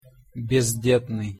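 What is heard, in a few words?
"bezdetnyj", Russian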